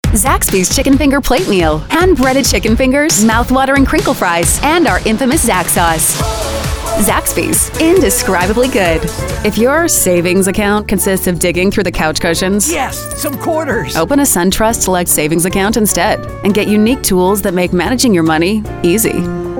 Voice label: Commercial; Commercial Demo